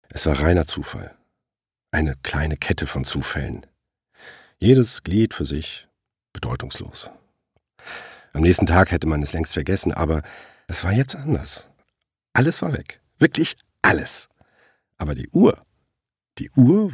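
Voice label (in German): erzaehlung, deutsch männer; sprecher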